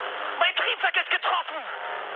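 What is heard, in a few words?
German damaged engine mood high, speech; War Thunder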